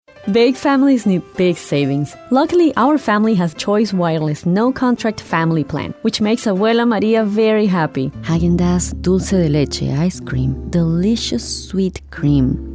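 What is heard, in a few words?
Commercial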